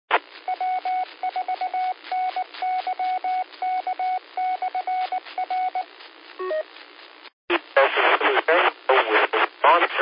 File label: qso rec simplex logic